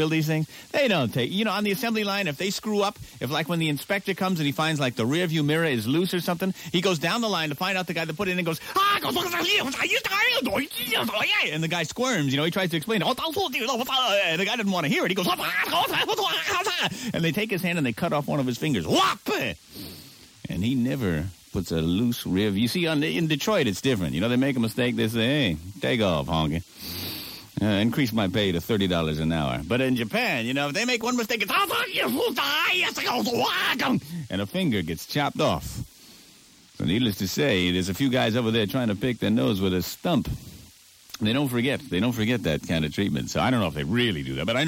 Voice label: Funny Isuzu Commercial; The Greaseman